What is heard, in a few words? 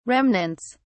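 "remnants", British English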